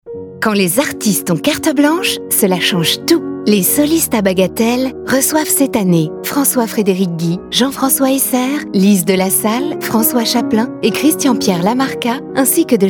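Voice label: female, French, PUB radio; RADIO CLASSIQUE; solistes a bagatelle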